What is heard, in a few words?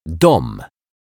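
"dom", Polish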